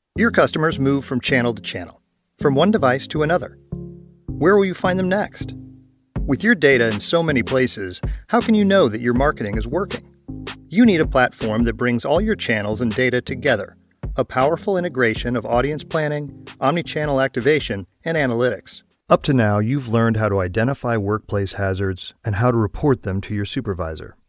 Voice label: 美式英语 广告, 外籍男英; 外语

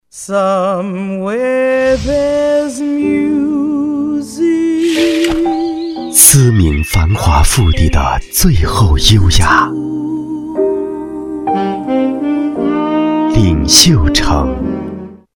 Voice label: 广告 地产 浑厚; 领袖城

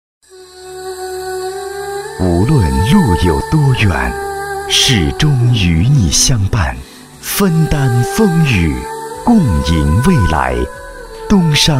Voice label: 广告 银行 金融产品广告 优雅, 男国

